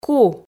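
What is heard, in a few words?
"ку", Komi, female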